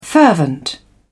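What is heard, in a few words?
"fervent", British English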